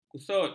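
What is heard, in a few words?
"kusut", Malay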